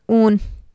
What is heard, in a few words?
"uun" wool, Urdu